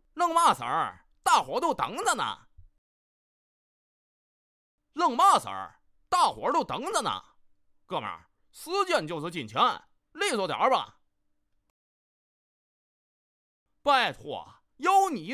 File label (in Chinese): 天津方言 男 方言 天津话 棋牌; 方言配音